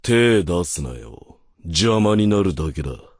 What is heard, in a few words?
voice, dubbing